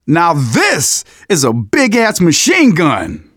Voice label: generic, manager, survivor, voice; Left 4 Dead 2; left4dead2 dlc2